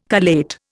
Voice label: "collate", Indian